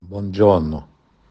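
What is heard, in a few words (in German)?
"Buongiorno", italienisch; Guten Morgen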